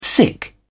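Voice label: "sic", British English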